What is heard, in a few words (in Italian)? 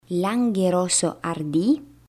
Langhe Rosso Ardi, Pronunciation Guide